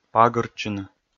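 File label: "пагыртчыны", Komi, male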